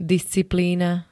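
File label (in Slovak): "disciplína", Slovak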